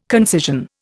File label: "concision", Indian